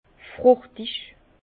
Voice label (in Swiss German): "fruchtig", Bas Rhin; fruité; vin